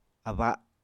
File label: "aba", apatani